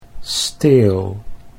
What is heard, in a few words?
"steel", Dutch